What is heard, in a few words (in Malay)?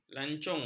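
"lancung", Malay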